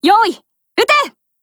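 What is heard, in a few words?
SKILL, Japanese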